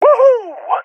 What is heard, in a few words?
cheer, seal epic; vo; Counter Strike Model Pack; CS:GO